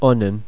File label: "ionann", ireland language pronounciation; Monophtongs